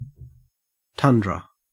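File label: "tundra", Australian English; pronunciations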